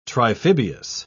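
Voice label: "triphibious", British English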